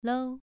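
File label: "lou2", Chinese pronunciation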